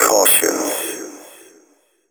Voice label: techno male 140; Vocals